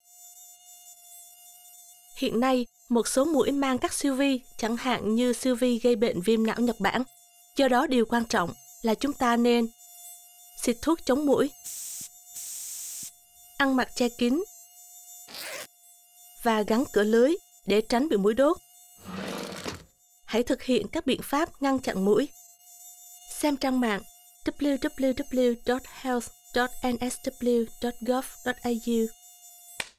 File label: radio vietnamese; mosquito-borne